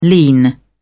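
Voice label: "lean", English, British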